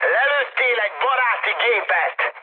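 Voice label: Hungarian player killed ally mood high, speech; War Thunder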